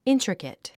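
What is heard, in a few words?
"intricate", English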